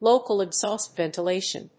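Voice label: "Local exhaust ventilation", English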